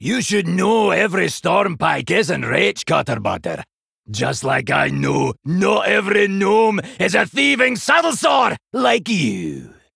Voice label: Male Dwarf; Tavish